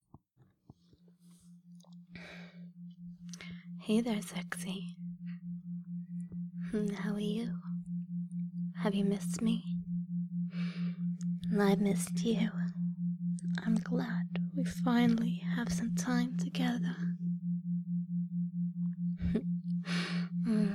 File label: F4M, Hypno, Binaural, Wet noises, binaural beats; Surrender to me HFO